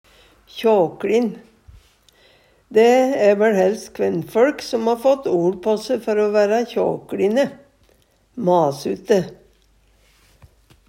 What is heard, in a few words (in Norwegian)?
kjakLin, Numedalsmål